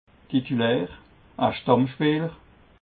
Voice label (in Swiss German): "titulaer", alsace